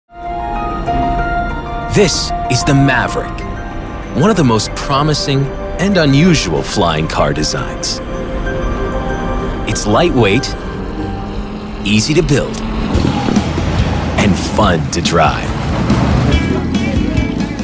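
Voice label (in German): Dokumentarfilm Erzahler Jugendfrei, English, male; NATIVE PROFISPRECHER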